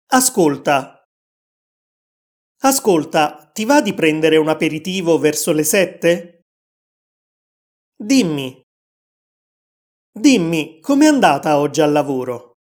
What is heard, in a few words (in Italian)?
Easy Italian Attirare l'attenzione di qualcuno; Italianglot; Easy Italian Pack